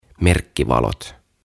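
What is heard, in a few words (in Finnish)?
"Merkkivalot", Finnish; OTAVA KONSERNI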